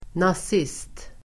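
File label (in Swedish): "nazist", Swedish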